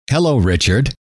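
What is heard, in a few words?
hello, male; trainer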